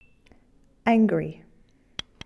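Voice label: "angry", English; adj; fichiers sons du vocabulaire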